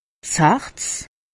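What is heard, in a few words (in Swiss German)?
"harz", Alsatian; medecine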